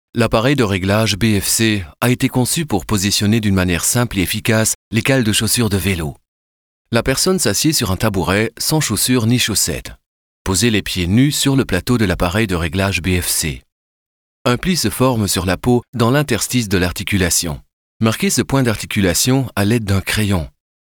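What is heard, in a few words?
French, male, e-learning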